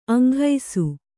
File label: "ಅಂಘೈಸು", kannada